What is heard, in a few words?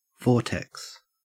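"vortex", Australian English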